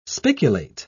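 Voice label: "spiculate", British English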